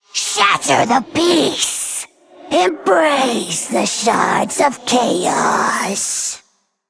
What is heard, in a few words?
Female Undead